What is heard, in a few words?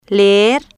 "leer", Spanish